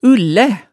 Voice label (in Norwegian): "ullæ", hemsedalsmaalet tale